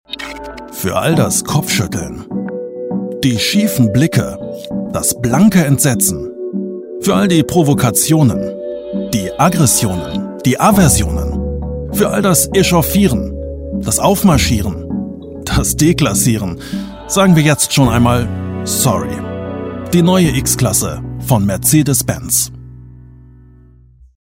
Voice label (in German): Werbung; Mercedes-Benz